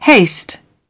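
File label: "haste", British English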